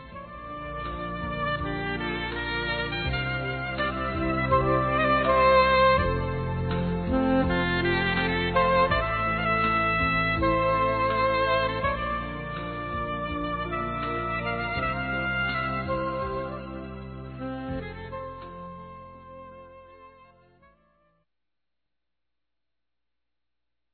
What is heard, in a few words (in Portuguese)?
BOA NOITE, fem; Bom dia boa tarde boa noite